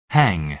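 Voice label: "hang", American English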